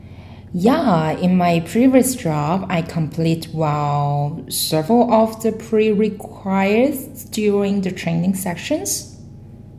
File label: female filler; interviewee